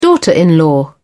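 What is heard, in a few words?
"daughter-in-law", English, British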